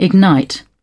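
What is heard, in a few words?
"ignite", British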